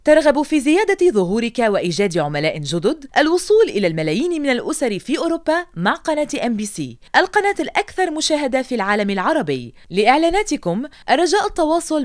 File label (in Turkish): Arapca Kadin Klasik Arapca Lubnanli, seslendirme; kadin sesler